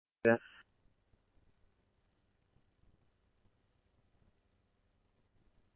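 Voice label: scanner, Phoenix Police Department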